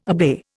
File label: "obey", Indian